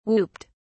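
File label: "whooped", British English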